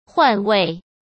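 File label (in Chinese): "换位", Mandarin Chinese; hsk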